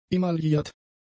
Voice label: "emailliert", german; adjectives

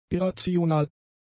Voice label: "irrational", german; adjectives

